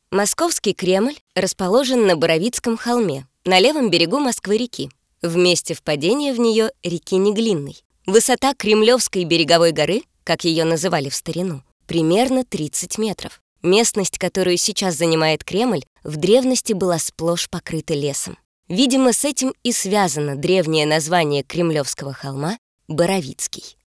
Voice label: audiogid; профессиональных дикторов для озвучивания видео

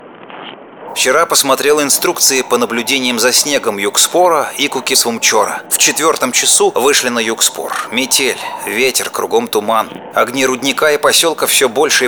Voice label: audiogid; профессиональных дикторов для аудиогида; Dnevnik

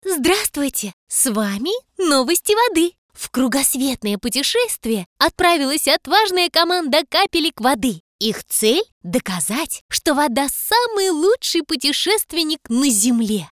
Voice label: Voda audiogid; профессиональных дикторов для аудиогида

